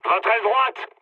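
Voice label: French right wing mood low; War Thunder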